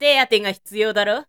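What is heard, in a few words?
woman, "teategahituyoudaro"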